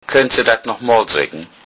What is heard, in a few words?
say again, lowsaxon